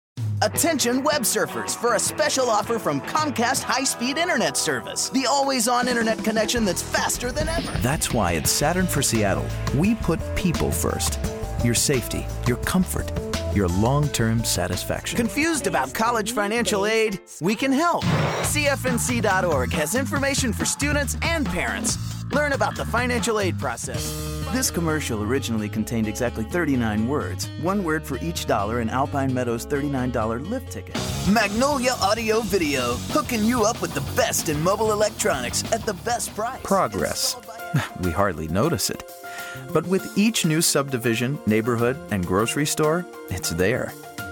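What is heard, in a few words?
Announcer, promo, Voiceover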